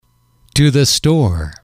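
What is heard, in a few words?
"to the store", English, male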